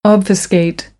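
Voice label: "obfuscate", American English; AmericanBritish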